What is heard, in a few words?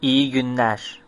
hello, turkish